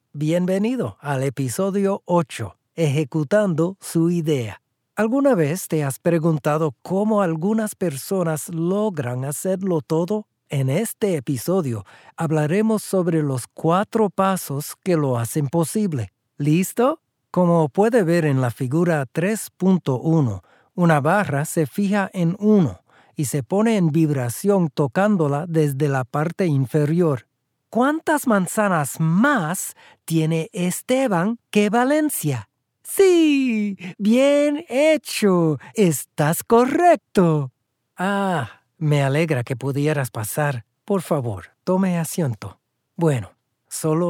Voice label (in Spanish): spanish e Learning